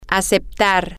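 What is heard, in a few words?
Spanish, female; to Accept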